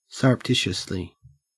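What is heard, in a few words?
"surreptitiously", Australian English; pronunciations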